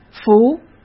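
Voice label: "服", Mandarin Chinese; hsk; chinese-flashcards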